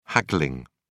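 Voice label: "haggling", British English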